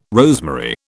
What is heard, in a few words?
"rosemary", British